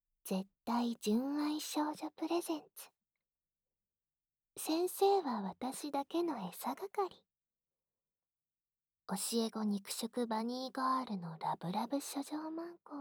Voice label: タイトルコール; 先生は私だけのエサ係♪

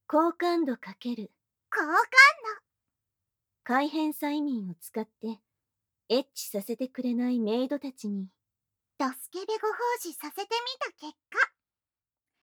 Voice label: タイトルコール, ASMR

